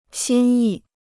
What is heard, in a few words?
"心意", Chinese